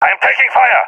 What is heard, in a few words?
taking fire, balkan; vo; balkan epic